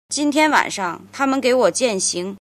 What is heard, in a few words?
"jin", Chinese